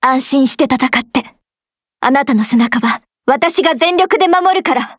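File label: start, Japanese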